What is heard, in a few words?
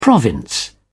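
"province", British English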